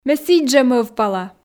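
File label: Komi, female; ме сідз жӧ мӧвпала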